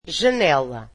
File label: "window", Portuguese